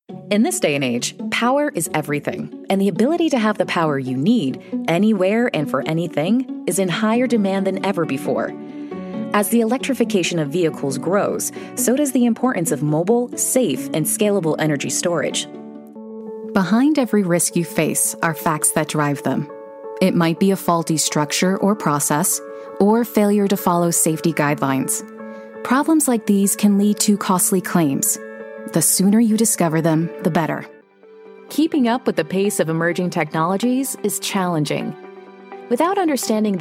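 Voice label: F, English US, female, corporate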